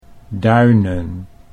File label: "duinen", Dutch